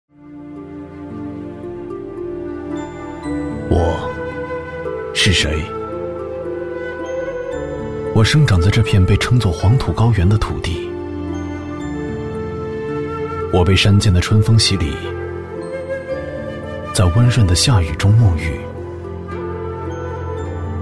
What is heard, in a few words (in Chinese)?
男 旁白走心 自然舒缓; 我是谁